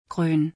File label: Green in German